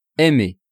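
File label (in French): "aimer", French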